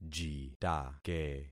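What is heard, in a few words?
"jitake", concatenated syllables; Affixing experiment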